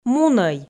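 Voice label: "мунӧй", Komi, female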